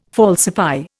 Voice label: "falsify", Indian